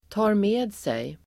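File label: "tar med sig", Swedish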